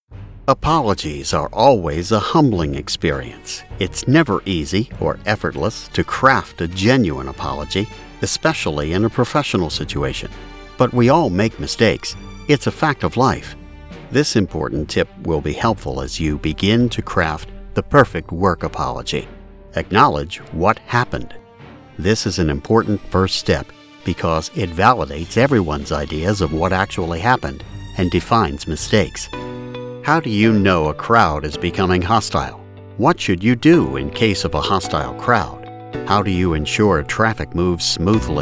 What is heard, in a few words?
corporate narration